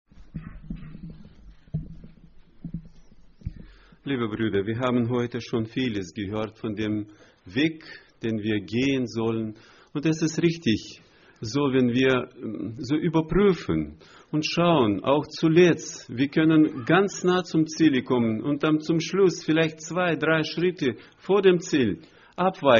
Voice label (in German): ansage; Братское (Германия, 04-25)